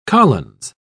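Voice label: "collins", British English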